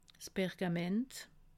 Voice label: "pergament", alsace

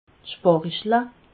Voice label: "sparichle", alsace

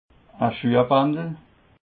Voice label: "schuehbandel", alsace

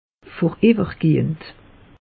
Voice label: "voriwwergehend", alsace; meteo

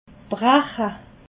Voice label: "brache", alsace

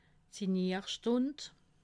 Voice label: "signirstund", alsace

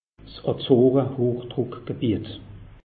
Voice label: "azoren", alsace; meteo

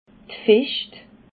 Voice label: "ficht", alsace

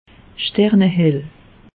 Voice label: "sternehell", alsace; meteo

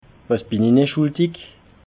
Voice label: "schuldig", alsace

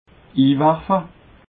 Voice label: "iwarfe", alsace

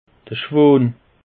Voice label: "schwan", alsace; peche

